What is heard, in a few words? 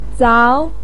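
"zao2", Mandarin Chinese pinyin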